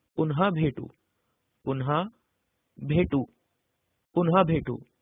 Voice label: Goodbye Marathi